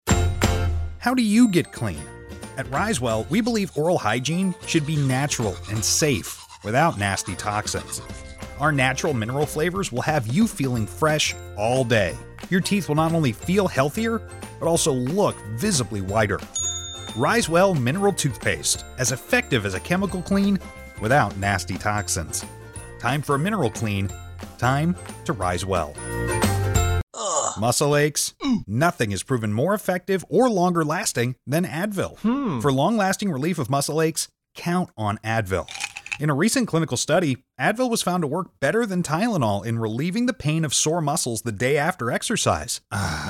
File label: USA